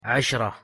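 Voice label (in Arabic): "عشرة", Arabic pronunciation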